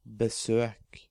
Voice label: "Besøk", Norwegian

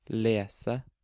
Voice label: "Lese", Norwegian

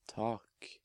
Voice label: "Tak", Norwegian

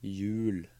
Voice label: "Hjul", Norwegian

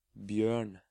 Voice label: "Bjørn", Norwegian

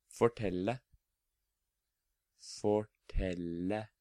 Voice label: "Fortelle", Norwegian

